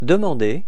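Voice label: "demander", French; frans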